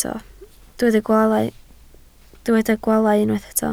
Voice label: "gwaalau", Welsh